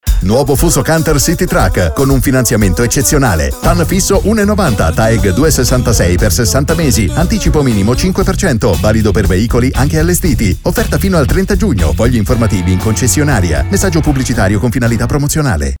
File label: italian promos